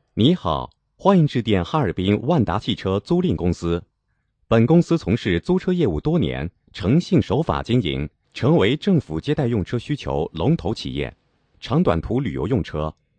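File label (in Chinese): 男彩铃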